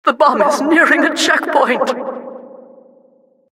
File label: announcer cart attacker warning